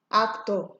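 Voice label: "actor", Nigerian Pidgin, female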